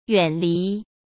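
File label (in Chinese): "远离", Mandarin Chinese; hsk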